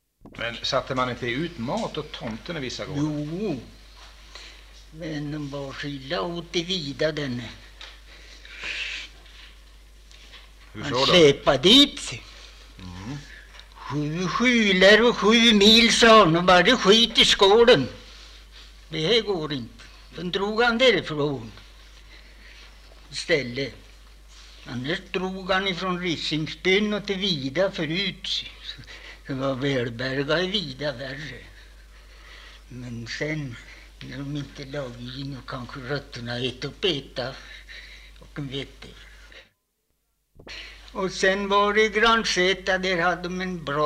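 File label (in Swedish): Harbo Uppland, dialekter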